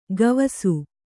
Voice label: "ಗವಿಸು", Kannada

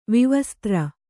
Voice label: "ವಿವಸ್ತ್ರ", kannada

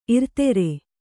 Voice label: "ಇರ್ತರ", Kannada

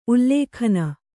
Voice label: "ಉಲ್ಲೇಖನ", Kannada

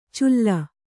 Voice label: "ಚುಲ್ಲ", Kannada

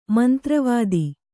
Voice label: "ಮಂತ್ರವಿದ", Kannada

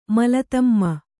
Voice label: "ಮಲತಮ್ಮ", Kannada

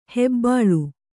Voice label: "ಹೆಬ್ಬುಲಿ", Kannada

